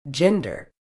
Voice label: "gender", medical English

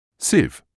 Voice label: "sieve", English

